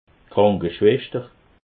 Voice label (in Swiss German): "krankeschwester", alsace